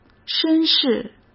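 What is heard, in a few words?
"绅士", Mandarin Chinese; chinese-flashcards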